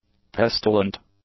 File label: "pestilent", english; adjectives